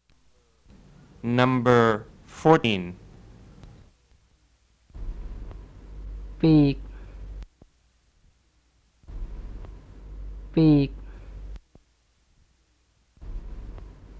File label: Tai Petburi